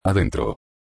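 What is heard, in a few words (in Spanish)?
"adentro", Spanish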